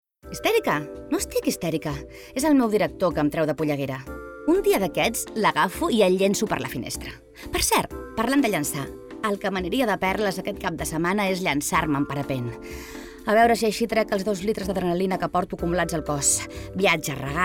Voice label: female catalan comercial; ideasonora

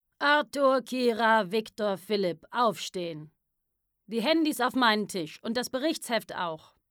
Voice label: female german actor comercial

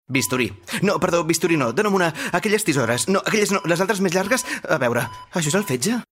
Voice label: male spanish car comercial; ideasonora

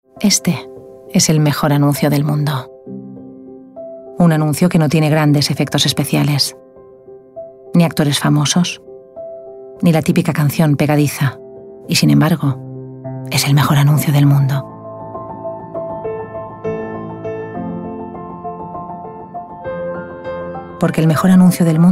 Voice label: female spanish medicine comercial; ideasonora

